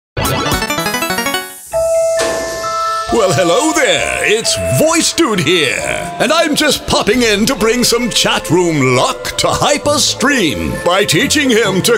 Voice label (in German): TV Werbespots Online Werbespots Breites Publikum; NATIVE PROFISPRECHER